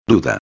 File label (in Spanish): "duda", espanhol; palavra do dia